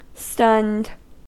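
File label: "stunned", US English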